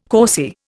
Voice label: "causey", Indian